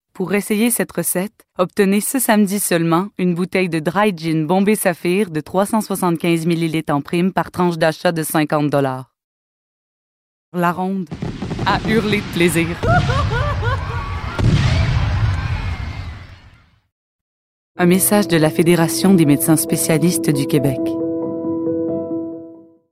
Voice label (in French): annonceur